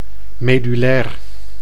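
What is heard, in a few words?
"medullair", Dutch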